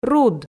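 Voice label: "руд", Komi, female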